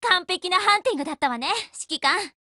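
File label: WIN Japanese, Voice; GF Alarm; Gm6Lynx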